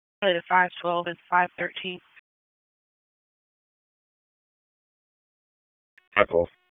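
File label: Police Southeast District Dispatch; Raleigh; wake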